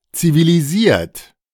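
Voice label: "zivilisiert", German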